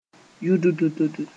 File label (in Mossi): "yʋddd", moore